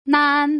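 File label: "nan", Mandarin Chinese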